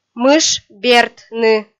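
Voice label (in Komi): "мыш бертны", Komi, female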